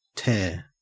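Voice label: "tare", Australian English; pronunciation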